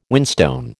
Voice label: "whinstone", American